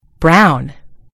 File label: "brown", en GB; gcompris